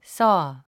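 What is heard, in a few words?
"saw", English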